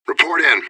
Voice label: radio bot report; fbihrt